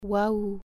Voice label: wow, french